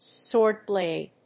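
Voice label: "sword-blade", English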